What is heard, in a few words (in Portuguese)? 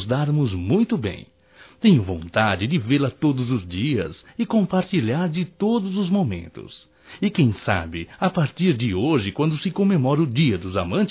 DIA DOS NAMORADOS AMIZADE COLORIDA (M); Amizades; Telemensagens 2017